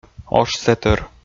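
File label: "ошсэтӧр", Komi, male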